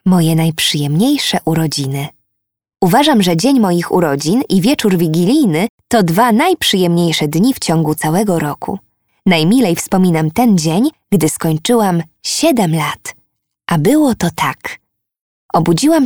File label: audiobook